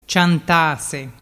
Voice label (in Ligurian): "ciantase", dialettu